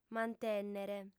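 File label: "mantènnere", female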